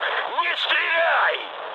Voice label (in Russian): player friendly fire, mood high, speech ru; War Thunder